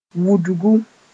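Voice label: "wudgu", Mooré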